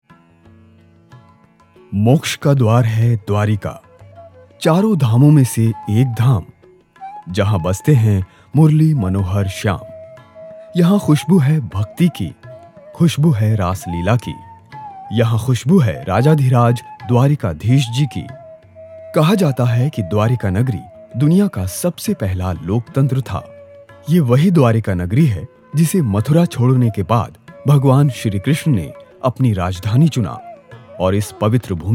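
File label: Hindi, male voice over; Dwarika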